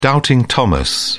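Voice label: "doubting thomas", British English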